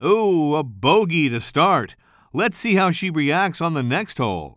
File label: commentator